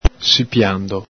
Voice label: "sipiando", Veneto; ENG: being; ITA: essendo; el Galepin

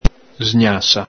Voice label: "sgnasa", Veneto

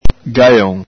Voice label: "galon", Venetian

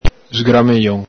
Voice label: "sgramejon", Veneto

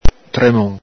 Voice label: "tremon", Veneto; ENG: shake, shock; el Galepin

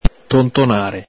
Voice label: "tontonare", Veneto; vb.intr.; ENG: loaf about; ITA: bighellonare; el Galepin

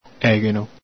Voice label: "egheno", Veneto

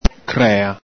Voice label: "crea", Veneto

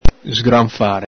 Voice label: "sgranfare", Veneto; scratch, claw; artigliare; el Galepin

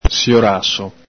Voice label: "sioraso", Veneto

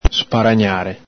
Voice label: "sparagnare", Veneto

